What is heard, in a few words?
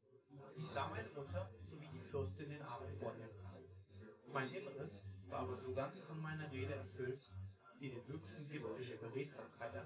male synthconv